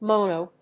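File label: "mono-", English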